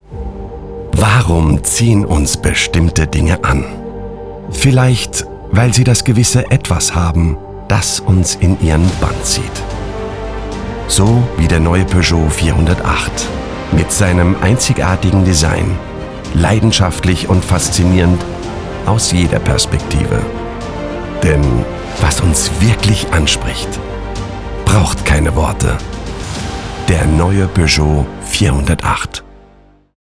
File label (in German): Video leiser; Peugeot